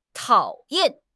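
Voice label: "tao3 yan4", Mandarin Chinese; HSK4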